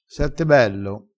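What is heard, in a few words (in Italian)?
"sette bello", dialetto crespinese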